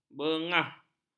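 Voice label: "bengah", Malay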